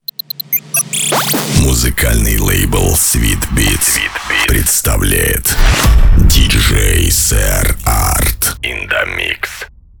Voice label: DJ JINGLE